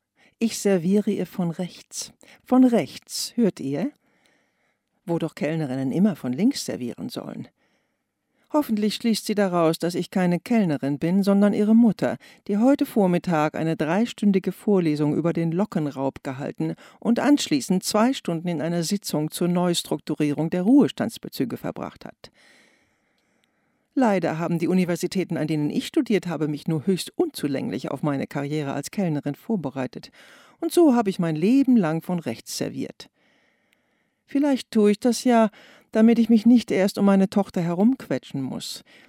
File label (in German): HOeRBUCH; DLeon; EwigeJugend; LOFT VOICES